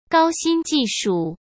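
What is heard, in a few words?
"高新技术", Mandarin Chinese; hsk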